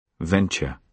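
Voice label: "venture", British English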